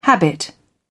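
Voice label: "habit", British English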